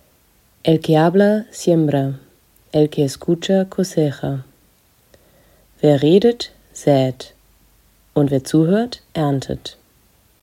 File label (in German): argentinisch